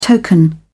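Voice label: "token", British English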